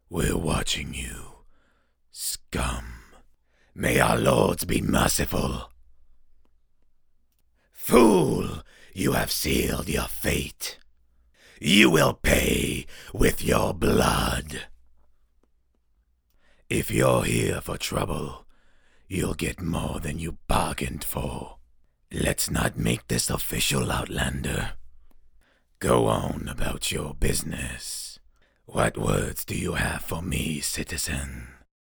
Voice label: darkelf ordinator, dark elf voice auditions